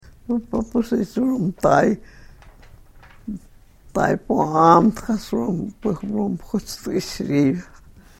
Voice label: karuk